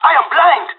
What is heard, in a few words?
blinded, pirate; Counter Strike Model Pack; CS:GO